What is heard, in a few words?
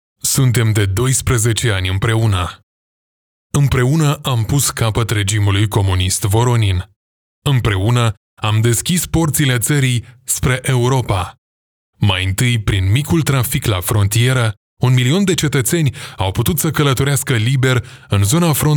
romanian political ads